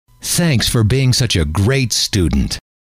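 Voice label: thanks for being such a great student, male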